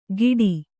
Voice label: "ಗಿಡಿ", Kannada